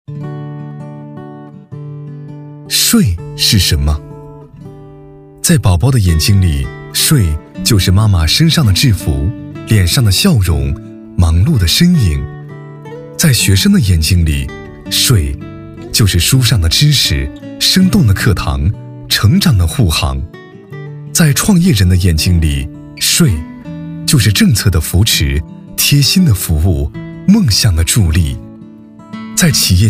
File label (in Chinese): 男 旁白; 税是什么